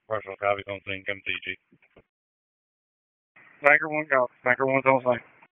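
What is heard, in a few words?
FIRE Operations, p25; FIRE OPS 1; wake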